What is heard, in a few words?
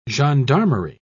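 "gendarmerie", British English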